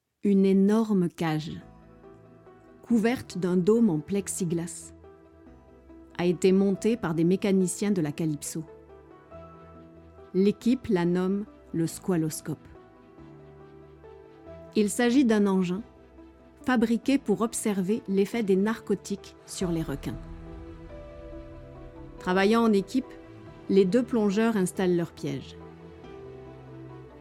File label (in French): Documentaire Adultes